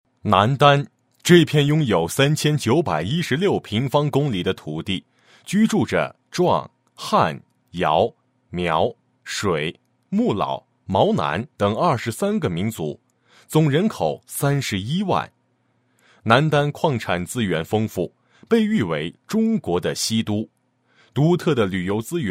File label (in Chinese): 男 廉政; 严查群众身边的四风和腐败问题专题